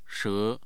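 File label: "she2", Mandarin Chinese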